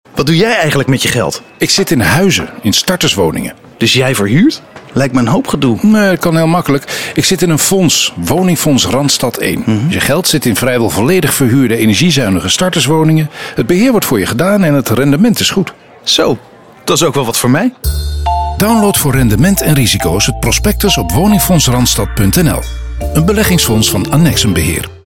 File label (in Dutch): Radiospot; Annexum Woningfonds Randstad